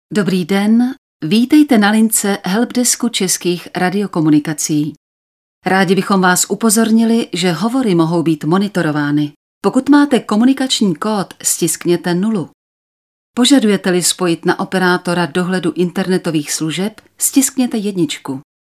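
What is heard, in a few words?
Female Czech IVR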